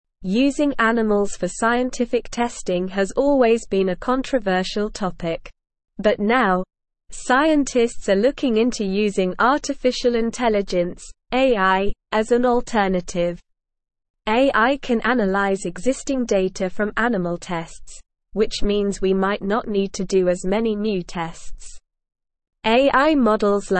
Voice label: English SLOW Reading; AI Accelerates Search for Non Animal Testing Altern